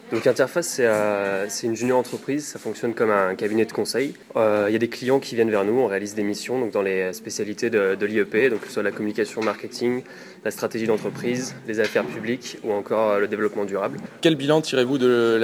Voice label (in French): reportage